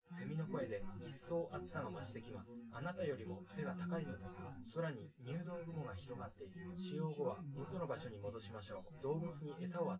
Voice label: male synth conv